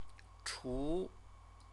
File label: "chu", Chinese; CTCFL; University of Oxford